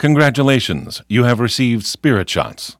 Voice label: tutorial voice; lineage2